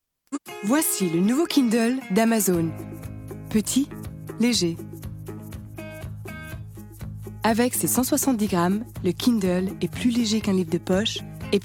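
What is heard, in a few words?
Swiss French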